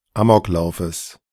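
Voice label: "Amoklaufes", German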